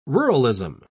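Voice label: "ruralism", British English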